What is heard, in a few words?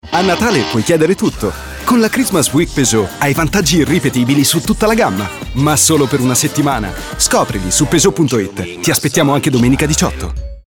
male italian comercial; ideasonora